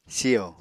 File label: "siyo", Apatani